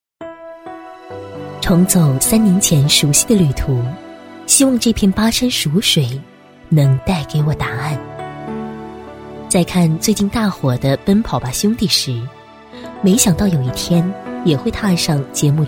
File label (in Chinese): 女, 专题; 巴山蜀水